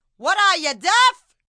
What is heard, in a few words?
What Ya Deaf, Attitude, Female: 'what are ya, deaf?!' Bronx accent; human voice speaking; Talking Sound Effects